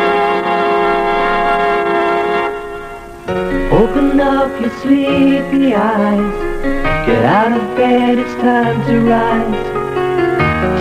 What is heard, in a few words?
radio launch; Radio Tay